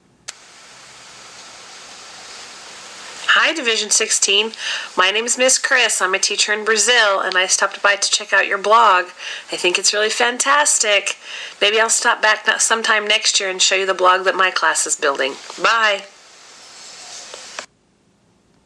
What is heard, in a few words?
voice mail from brazil